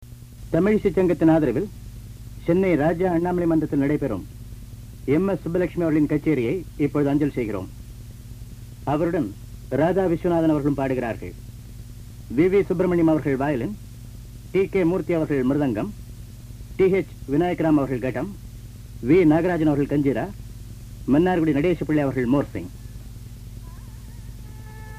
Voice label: announcement